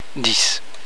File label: "dix", french